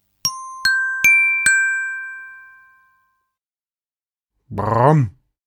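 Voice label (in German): "brumm", German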